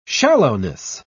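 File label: "shallowness", British English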